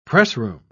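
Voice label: "pressroom", British English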